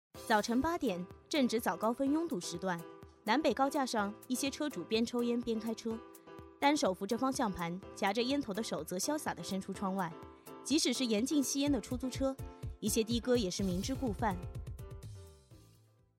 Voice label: news